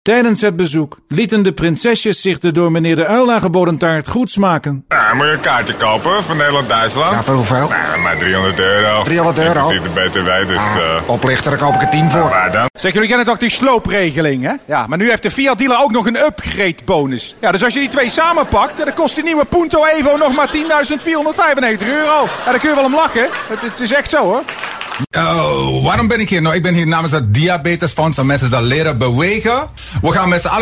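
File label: Dutch Characters; GoLocalise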